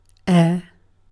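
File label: "air", British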